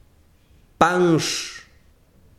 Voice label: besiro luna, chiquitano